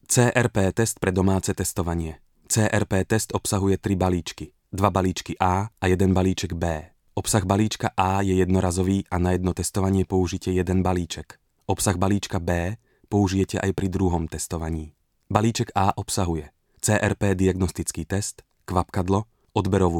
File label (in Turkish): Slovakca Erkek, seslendirme; erkek sesler